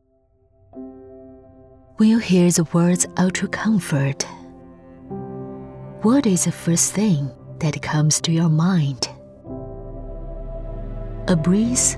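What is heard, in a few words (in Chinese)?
英文高级质感品牌形象片; 派雅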